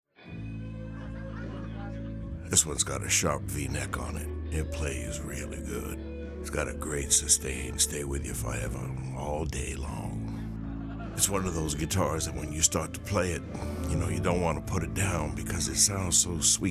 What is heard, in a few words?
audiobook; swampwater